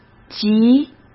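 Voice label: "级", Mandarin Chinese; hsk; chinese flashcards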